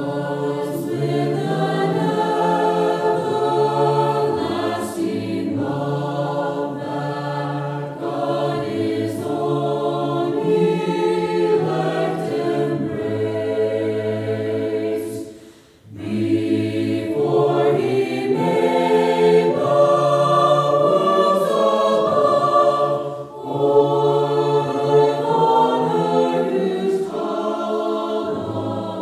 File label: Gospel Preaching; The Book of Romans Pt 90; Rom. 10.14-21